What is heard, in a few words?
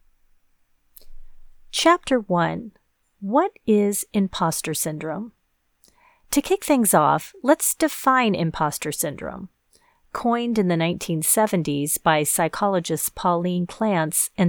e-learning